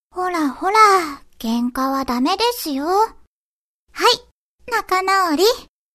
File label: voice ribbon